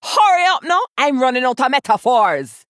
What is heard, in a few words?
Female Troll Idle; Hooktusk